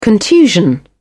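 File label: "contusion", British English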